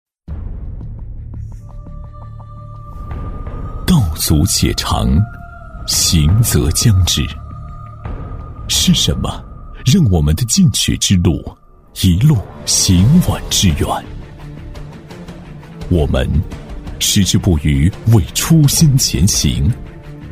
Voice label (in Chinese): 男 企业宣传 高端厚重; 男213-全能型; 成熟厚重